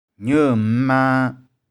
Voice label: "nieu1m1mang3", 玉環

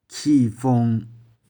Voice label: "khi2fung1", 玉環

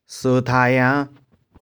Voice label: "so3tha3yang5", 玉環

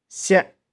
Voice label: "shih4", 玉環

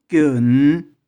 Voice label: "kieu2n5", 玉環

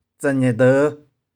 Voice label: "tseh4nih4deu5", 玉環

